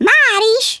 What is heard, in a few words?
YES SIR, Speech Hungarian; Worms Armageddon